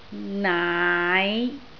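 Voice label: "naai5", Cantonese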